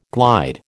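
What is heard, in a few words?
"glide", American English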